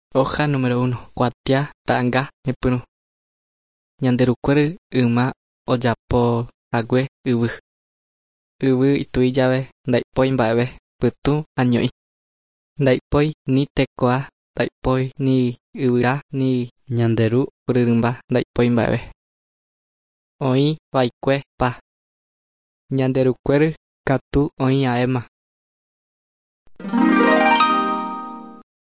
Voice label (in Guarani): guarani mbya; good news and words of life; bible